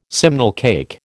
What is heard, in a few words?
"simnel cake", American